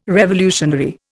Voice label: "revolutionary", Indian